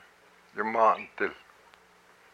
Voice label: "white man", hupa